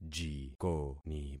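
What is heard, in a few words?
"jikoni", concatenated syllables; Affixing experiment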